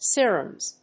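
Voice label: "serums", English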